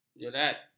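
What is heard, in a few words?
"jerat", Malay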